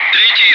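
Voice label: Russian bright; Wingman; DCS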